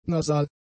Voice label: "nasal", German; adjectives

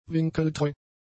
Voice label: "winkeltreu", German; adjectives

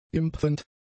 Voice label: "impfend", German; adjectives

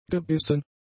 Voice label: "gebissen", German; adjectives

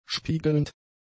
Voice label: "spiegelnd", german; adjectives

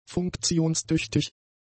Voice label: "funktionstüchtig", German; adjectives

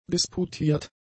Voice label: "disputiert", German; adjectives

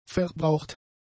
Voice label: "verbraucht", German; adjectives

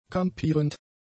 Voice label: "kampierend", German; adjectives

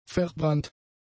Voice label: "verbrannt", German; adjectives

